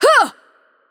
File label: VO Attack Heavy, it IT; bloom minigame